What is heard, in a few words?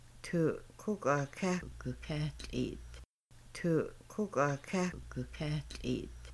You poured water into a cup, Kaska; Making Bannock